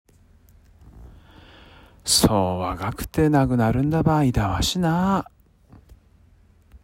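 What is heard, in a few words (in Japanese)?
津軽方言 例文, そう わがくて 亡くなるんだば いだわし な; （そんな若くして亡くなるなんて口惜しいことだ）; 青森 津軽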